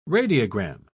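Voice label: "radiogram", British English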